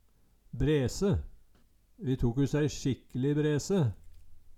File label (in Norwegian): brese, Numedalsmål